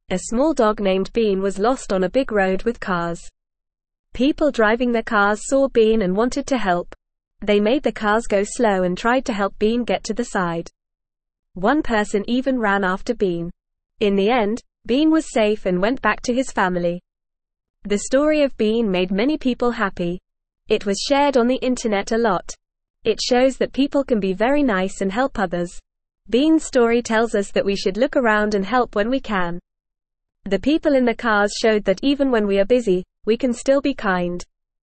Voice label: Beginner FAST Reading, English; English Newsroom; Bean the Lost Dog Finds Help on a Busy Road